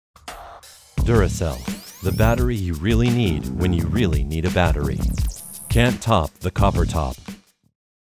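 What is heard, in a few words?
gravitas, commercial; Duracell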